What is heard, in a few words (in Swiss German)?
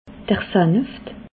"seneft", alsace